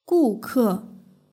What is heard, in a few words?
"guke", Chinese; hsk6